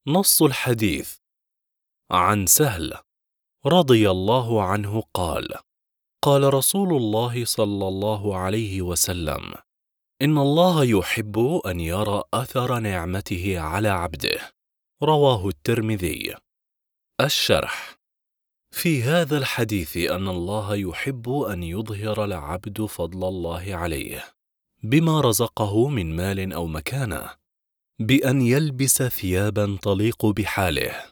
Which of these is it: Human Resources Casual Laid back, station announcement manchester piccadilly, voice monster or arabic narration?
arabic narration